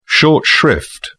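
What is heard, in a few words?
"short shrift", British English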